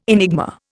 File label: "enigma", Indian